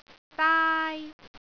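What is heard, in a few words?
"daai1", Cantonese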